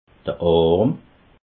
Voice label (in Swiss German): "arm", alsace